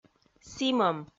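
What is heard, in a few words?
"сімӧм" Komi, female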